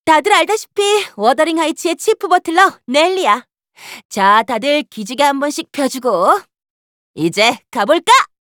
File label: Announcer equip